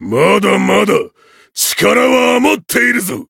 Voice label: win, jp